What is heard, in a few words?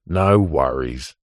human male australian middle aged says no worries